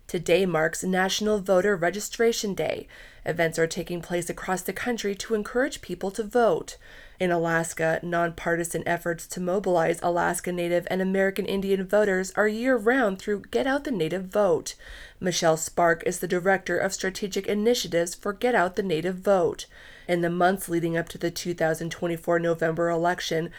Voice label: voiceover